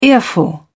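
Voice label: "earful", British English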